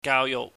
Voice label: "gaauyuhk", Cantonese

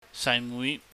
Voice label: "saimui", Cantonese

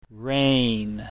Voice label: "rain", english

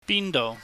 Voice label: "bindou", cantonese

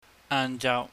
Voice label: "aanjau", Cantonese

